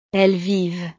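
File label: "elles vivent", French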